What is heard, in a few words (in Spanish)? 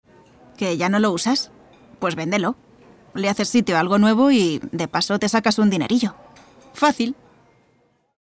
generica despreocupada